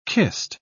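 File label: "kist", British English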